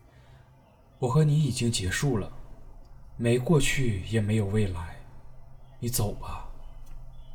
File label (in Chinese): 哀伤